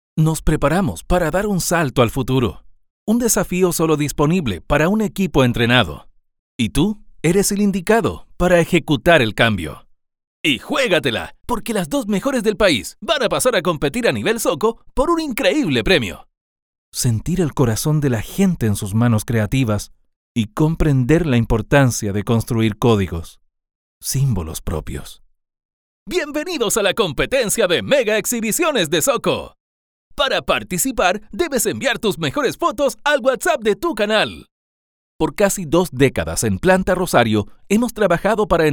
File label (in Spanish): VO Locutor Dry